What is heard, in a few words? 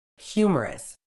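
"humerus", British English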